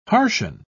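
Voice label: "harshen", British English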